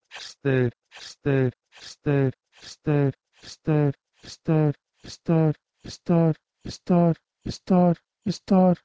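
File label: Proto-Indo-European h2ster to Balochi istar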